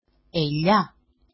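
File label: "éla", Greek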